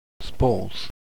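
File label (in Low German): "spaasz", Low German; klettres; tdeedu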